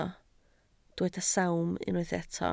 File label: "sawm", Welsh